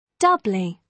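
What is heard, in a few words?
"doubly", British English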